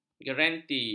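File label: "gerenti", Malay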